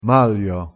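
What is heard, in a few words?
"malier", Luxembourgish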